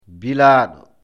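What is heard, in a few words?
"bilaado", Fula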